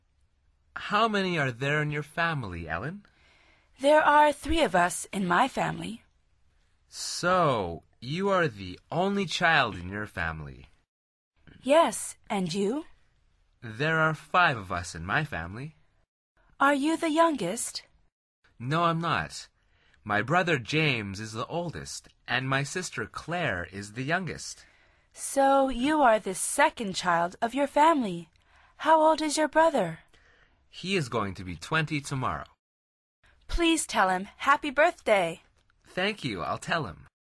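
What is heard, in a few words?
dialog, Conversación en Ingles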